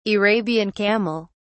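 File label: "arabian camel", British English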